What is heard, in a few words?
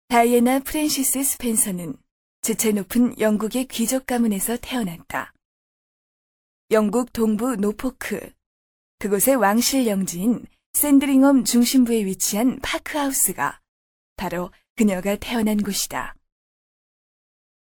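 Korean female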